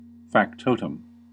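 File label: "factotum", American English; pronunciations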